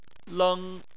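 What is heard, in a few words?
"leng", Chinese, first tone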